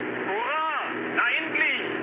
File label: enemy detected, mood high, speech ge; War Thunder